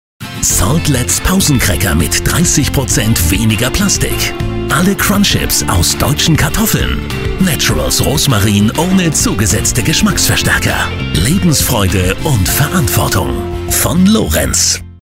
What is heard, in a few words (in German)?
chips werbung; lorenz